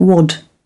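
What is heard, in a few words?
"wad", British English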